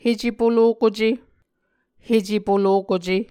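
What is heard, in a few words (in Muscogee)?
"hecepolokuce", Muscogee word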